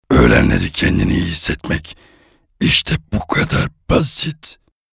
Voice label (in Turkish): ANIMASYON